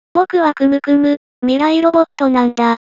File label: AquesTalk; aquestalkandpython